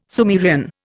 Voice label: "Sumerian", Indian

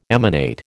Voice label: "emanate", American

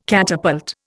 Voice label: "catapult", Indian

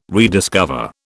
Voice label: "rediscover", British

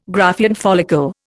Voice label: "Graafian follicle", Indian

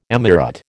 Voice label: "emirate", American

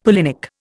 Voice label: "pollinic", Indian; Dictionary

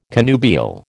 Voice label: "connubial", American

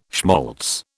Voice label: "schmaltz", British

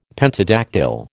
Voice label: "pentadactyl", American

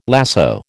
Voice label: "lasso", American

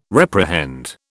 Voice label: "reprehend", British

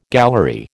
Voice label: "gallery", American

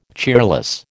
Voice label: "cheerless", American

